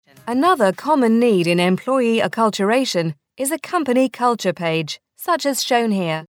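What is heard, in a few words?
British info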